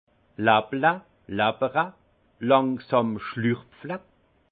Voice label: "lapple", alsace; vin; siroter

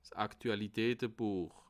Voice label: "aktualitatebuech", alsace

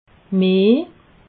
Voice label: "meh", alsace

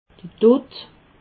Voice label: "dut", alsace

